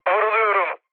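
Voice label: aircraft damaged, mood low, Turkish; War Thunder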